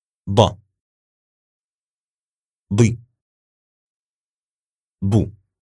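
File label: "Da Di Du", Arabic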